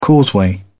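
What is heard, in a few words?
"causeway", British English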